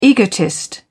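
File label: "egotist", British English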